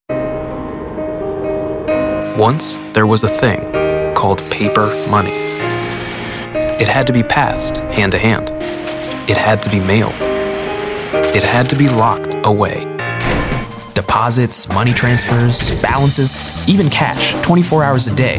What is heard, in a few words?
Commercial